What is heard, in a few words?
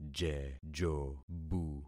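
"jejobu", concatenated syllables; Affixing experiment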